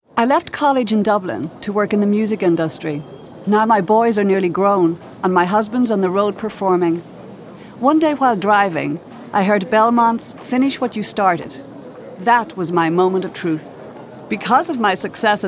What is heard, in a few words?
Radio